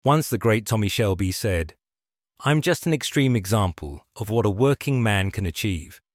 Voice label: voicemail